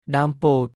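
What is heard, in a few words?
"ដើម ពោធិ៍", Khmer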